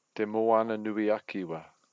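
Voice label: "te moana nui a kiwa", Māori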